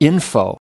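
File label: "info", US English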